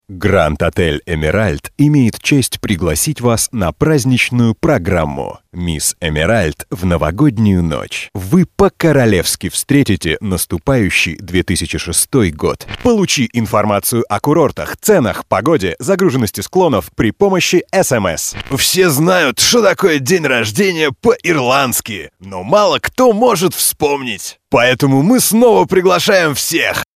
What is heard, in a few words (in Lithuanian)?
Rusiškas balsas, vyras